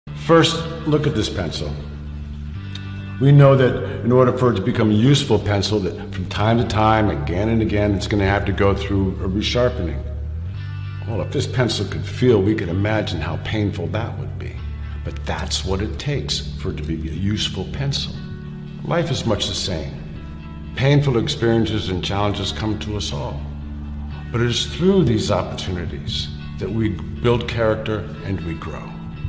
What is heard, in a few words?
motivational speech; Recharge Yourself Daily